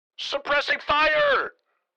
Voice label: Military radio voice command; suppressing fire

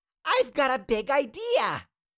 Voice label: Cartoon little child voice

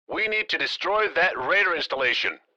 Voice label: Military radio voice; radar installation

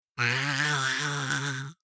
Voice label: surprise exclamation voice; Minion; minions

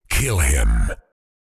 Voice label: Deep male voice kill him

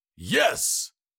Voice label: Cartoon lion voice "yes"

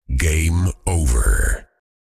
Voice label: Deep male voice game over

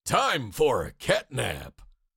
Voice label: Cartoon lion voice; time for a catnap

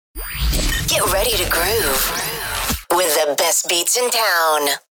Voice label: Female radio voice dj sweeper get ready to groove

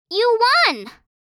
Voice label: Teenage girl saying you won; misc human

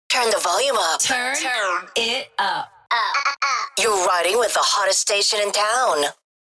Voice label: Vocals radio voice sweeper turn the volume up

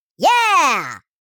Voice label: Minion excitement voice; fantasy friends

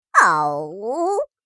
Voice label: Minion sadness voice

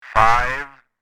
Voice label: CB radio male voice says

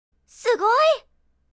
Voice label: Amazing anime girl character

